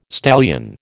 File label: "stallion", American